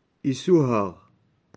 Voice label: "Isouhagh", Touareg; Musica

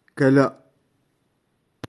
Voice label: "Kala", Touareg

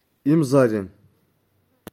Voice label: "Imzadan", Touareg; Capelli

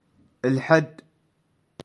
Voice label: "Alhad", Touareg; Dimanche

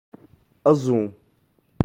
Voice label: "Azoum", Touareg; Settembre

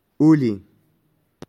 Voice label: "Oulen", Touareg; Il mio cuore

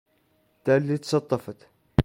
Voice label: "Talit Satafat", Touareg; Febbraio

